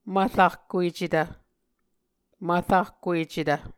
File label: "mvrahkuecetv", Muscogee